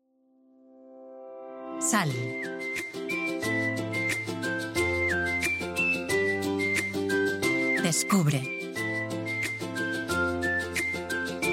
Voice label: commercial, spanish, female